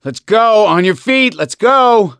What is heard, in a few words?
revive friend loud, voice; gambler